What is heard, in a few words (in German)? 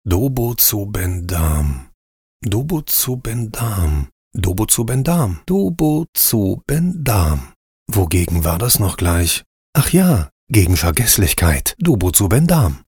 verspielt humorvoll